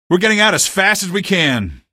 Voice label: evac ok, male; video games; npc; source engine; episodic; episode 1